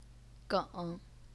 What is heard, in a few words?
"geng3", Chinese pinyin